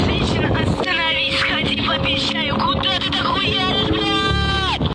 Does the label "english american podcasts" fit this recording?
No